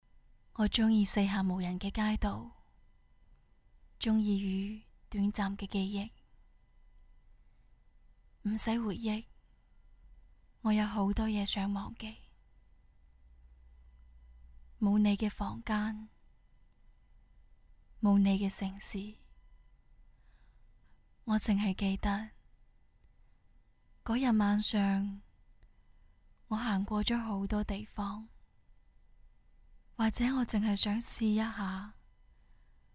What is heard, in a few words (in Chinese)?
女, 粤语 情绪-伤感